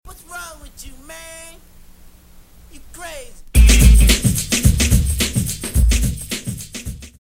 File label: Vocals ('you crazy!')